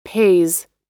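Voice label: "pays", American English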